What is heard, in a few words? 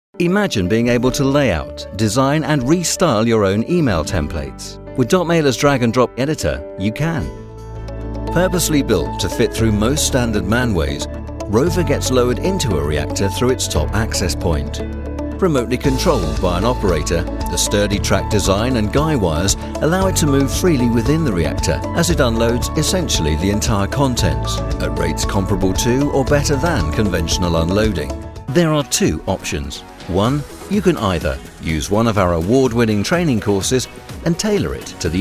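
Explainer, British Male Voice Over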